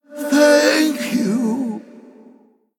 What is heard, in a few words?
Male Human Ghost Death